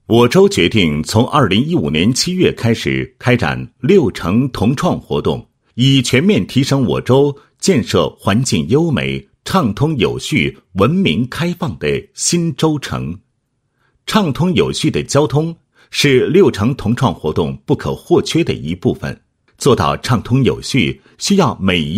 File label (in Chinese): 男 通告决定, 规范交通决定; 恩施